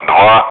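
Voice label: Speech, Russian, air traffic control; Digits; DCS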